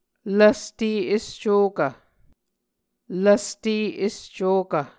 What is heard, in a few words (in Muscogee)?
"lvste escokv", Muscogee